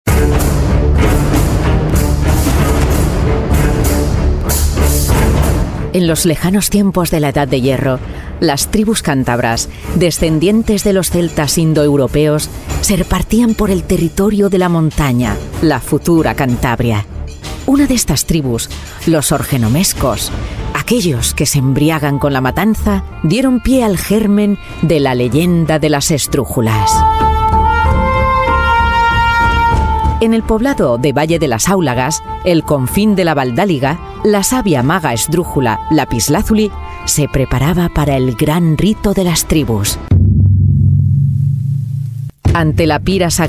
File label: spanish voice over female audiobook